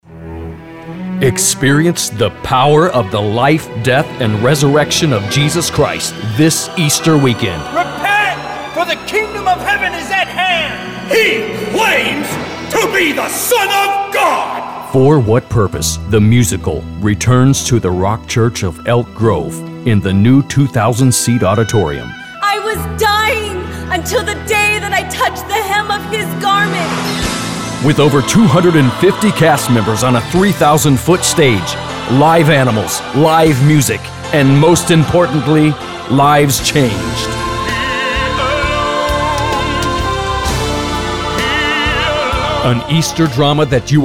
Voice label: Radio Spot